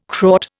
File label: "Kraut", Indian